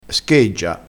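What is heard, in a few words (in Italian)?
"scheggia", dialetto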